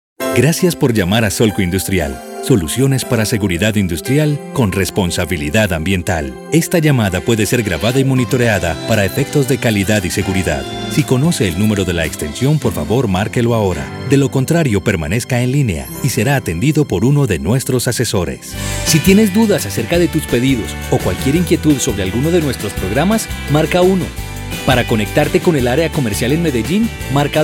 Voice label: spanish latin american ivr